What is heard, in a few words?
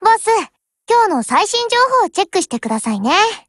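HELLO Japanese, Voice; GF Alarm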